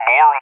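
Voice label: "Boar", English speech, Tanker Callsign; DCS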